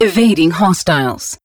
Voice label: advisor notification evade hostile fleet, pacifist; Stellaris; ethics